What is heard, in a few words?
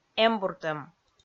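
"эмбуртӧм", Komi, female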